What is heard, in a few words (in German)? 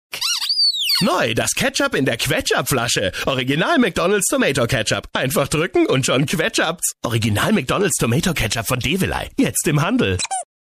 Fremdtake Dynamisch